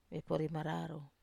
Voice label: "vepori mararau", Wamesa